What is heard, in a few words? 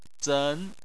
"zen2", Chinese